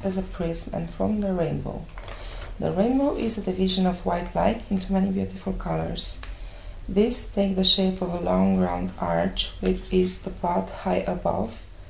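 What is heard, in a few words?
romania, International Dialects of English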